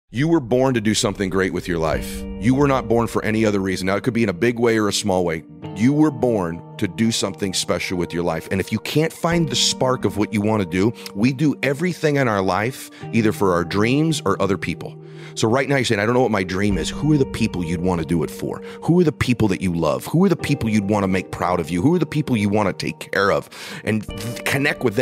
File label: Inspirational Speech; Ed Mylett How YOU Were Born To Do Something Great In Life